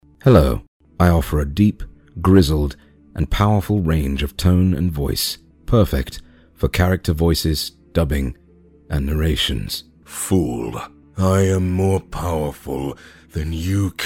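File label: English male; lektoring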